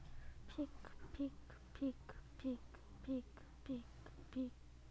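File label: "pick", spoken word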